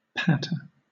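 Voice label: "patter", British English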